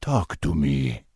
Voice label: Troll Male Standard Greeting; NPC